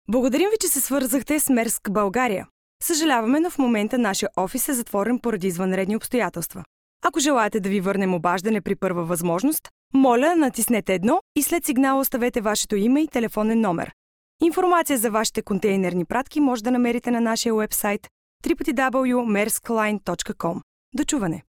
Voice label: bulgarian f ivr; voice demos

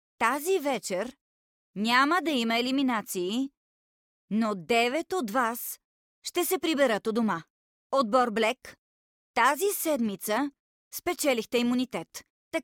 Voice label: bulgarian f narration, voice

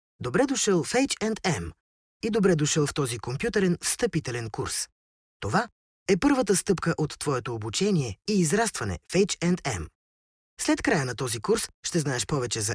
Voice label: bulgarian m e-learning, voice; voice demos

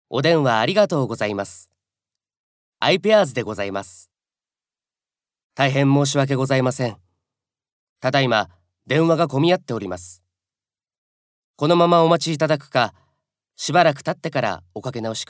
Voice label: japanese m commercial; voice demos

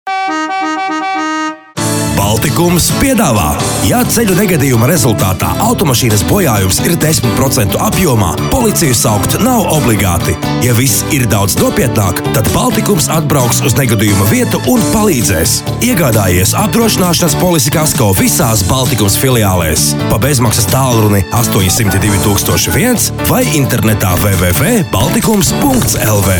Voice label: latvian m commercial, latvian voice demos

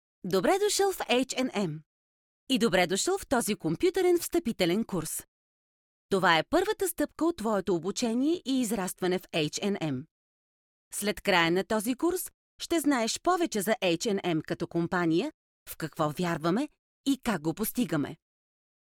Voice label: bulgarian f e-learning, voice; voice demos

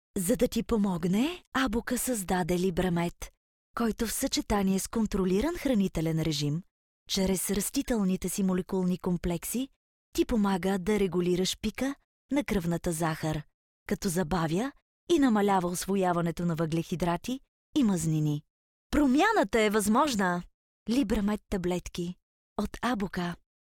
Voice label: bulgarian f commercial; voice demos

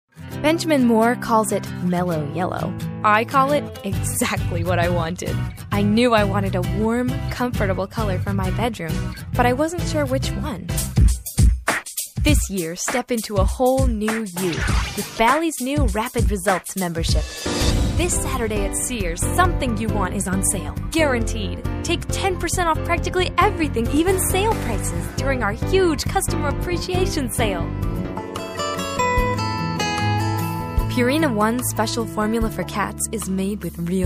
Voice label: english us f commercial, voice demos

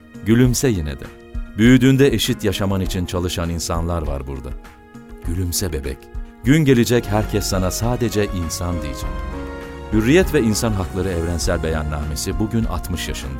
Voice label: turkish m narration

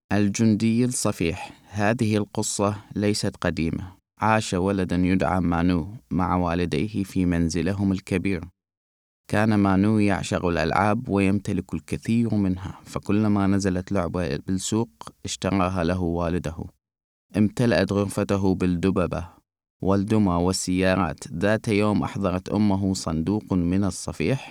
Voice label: arabic m narration; voice demos

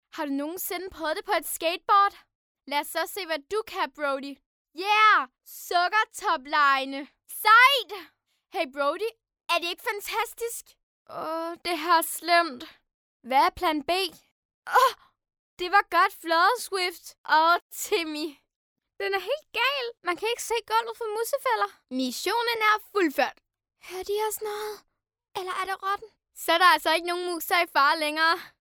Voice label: danish kid character, voice

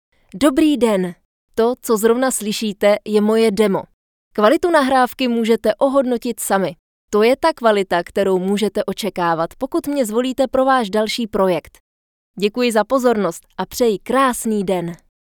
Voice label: czech f narration

